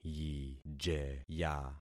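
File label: "yijeya", concatenated syllables; Affixing experiment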